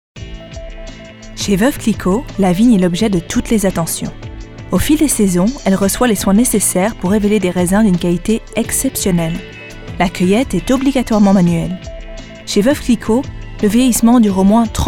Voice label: narrative